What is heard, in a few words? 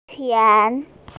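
"tian2", Chinese, F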